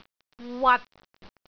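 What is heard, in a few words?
"wat6", Cantonese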